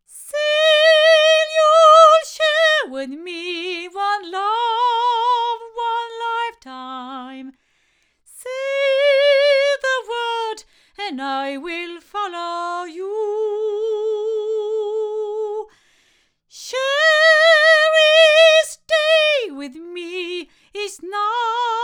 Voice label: Oktava MK012 LOMO M3 female